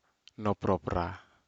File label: Aneityum basket for sweet potatoes